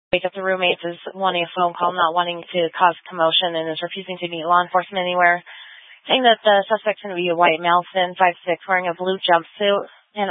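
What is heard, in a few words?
Police 1 Dispatch, Scanner audio recording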